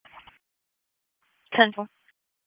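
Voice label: Sheriff's Office Dispatch 1, p25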